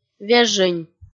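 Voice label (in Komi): "вежынь", Komi, female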